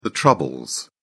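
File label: "troubles", British English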